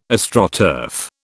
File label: "Astroturf", British